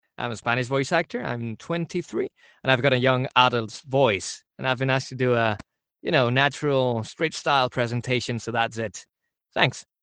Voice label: male english presentation